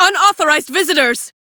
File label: Crew Enemy Spot, female; Warframe; Railjack; Cephalon Suda